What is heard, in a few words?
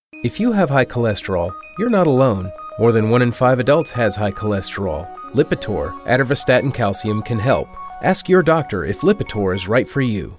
commercials